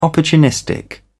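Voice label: "opportunistic", British English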